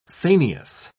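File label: "sanious", British English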